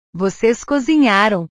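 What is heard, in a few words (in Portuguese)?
"vocês cozinharam", Portuguese; portugues/verbos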